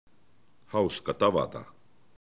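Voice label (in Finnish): "hauska", Finnish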